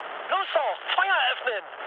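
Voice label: German attack position mood med, speech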